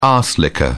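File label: "arse licker", British English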